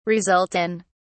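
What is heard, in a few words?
"result in", English, British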